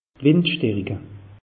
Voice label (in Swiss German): "wind starike", alsace; meteo